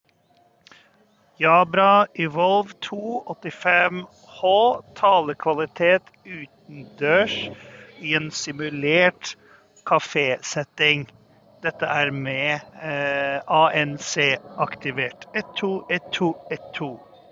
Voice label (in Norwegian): samtalelyd USB café; Jabra Evolve2 85h